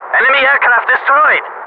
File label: SHOOT DOWN, German; combatfs